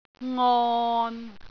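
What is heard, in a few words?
"ngon6", Cantonese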